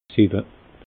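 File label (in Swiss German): "siwwe", Alsatian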